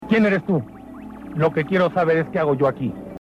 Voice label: spanish; vampiro